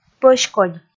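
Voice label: "пӧськодь", Komi, female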